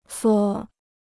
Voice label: "缩", Chinese